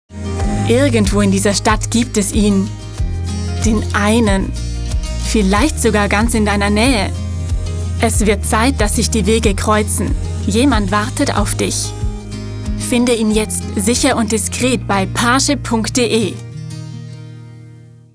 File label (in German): WERBUNG; PARSHIP